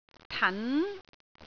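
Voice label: "tan2", Cantonese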